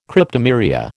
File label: "Cryptomeria", American